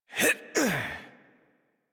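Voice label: horse mount adult, English; Warframe; Duviri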